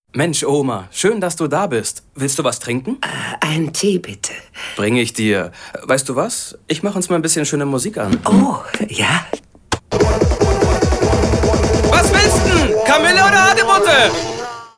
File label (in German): Spots, Szene, frauen; Amazon Music Unlimited